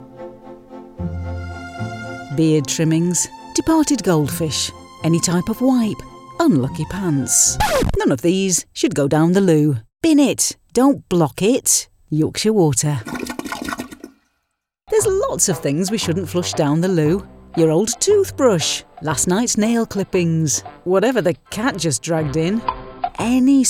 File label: Ads; Yorkshire Water